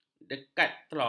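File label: "dekatlon", Malay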